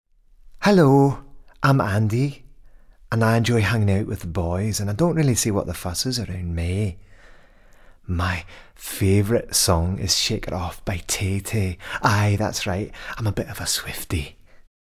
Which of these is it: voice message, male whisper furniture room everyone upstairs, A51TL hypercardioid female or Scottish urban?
Scottish urban